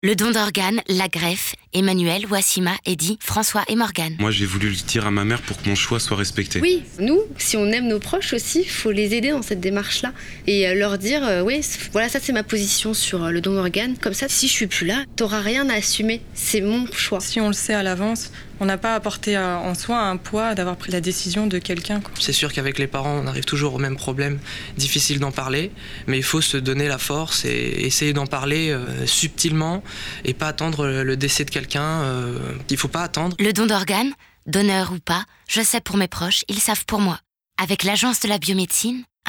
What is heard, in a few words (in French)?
spot radio